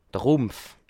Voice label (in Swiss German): "rumpf", Alsace